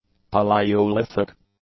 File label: "palaeolithic", English; adjectives